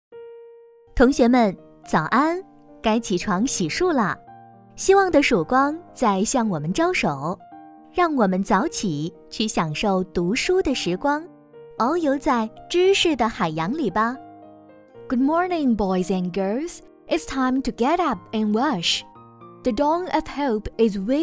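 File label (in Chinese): 中英校园提示广播, 青年 女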